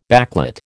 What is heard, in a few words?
"backlit", American